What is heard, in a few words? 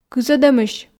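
"кызӧдӧмысь", Komi, female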